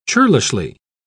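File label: "churlishly", British English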